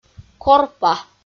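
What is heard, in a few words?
"корпа" Komi, female; audio dict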